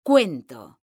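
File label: "CUENTO", Spanish; mekanta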